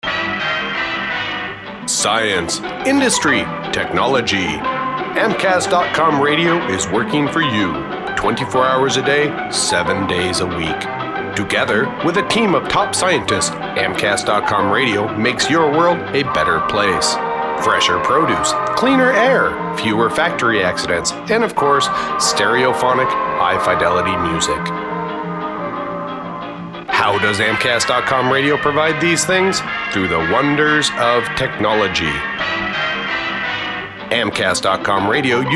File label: promo; industry